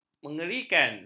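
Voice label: "menyerikan", Malay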